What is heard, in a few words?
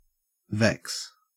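"vex", Australian English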